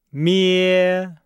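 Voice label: "mie", Chinese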